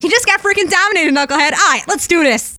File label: scout domination, femscout